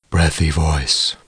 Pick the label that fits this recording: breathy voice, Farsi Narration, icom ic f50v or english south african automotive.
breathy voice